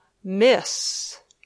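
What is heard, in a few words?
"Miss", English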